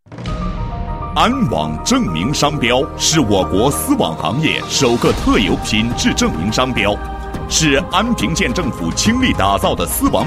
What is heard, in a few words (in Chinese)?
男 品牌宣传 证明商标; 安网